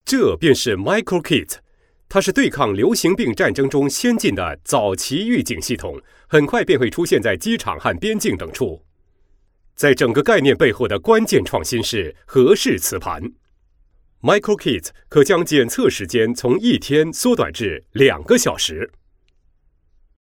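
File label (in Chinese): Mandarin Taiwan voice over male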